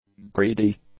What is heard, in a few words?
"greedy", english; adjectives